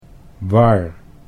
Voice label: "war", Dutch

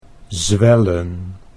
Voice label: "zwellen", Dutch

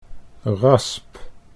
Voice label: "rasp", Dutch

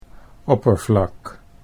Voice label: "oppervlak", Dutch

